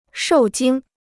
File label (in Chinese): "受惊", Chinese